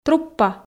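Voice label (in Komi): "...труппа", Komi, female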